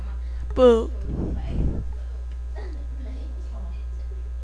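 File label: "book", spoken word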